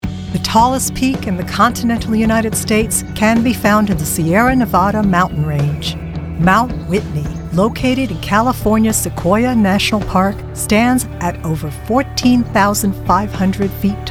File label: Narration